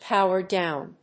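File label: "powerdown", English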